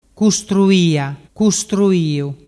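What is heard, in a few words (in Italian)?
"custruiaiu", Vernazzese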